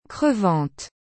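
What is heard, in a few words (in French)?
"crevante", French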